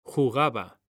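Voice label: "jugaba", Spanish, male; mekanta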